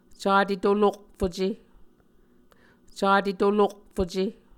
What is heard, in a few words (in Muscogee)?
"cate tolokfuce", Muscogee; Mvskoke Words with Voicing Consonants; A Dictionary of Creek/Muskogee; SIL International; Onvkv Ohhvyayices